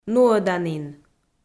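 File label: "нуӧданін", Komi, female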